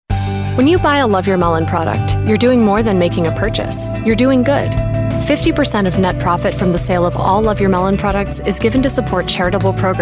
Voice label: COMMERCIAL; Love Your Melon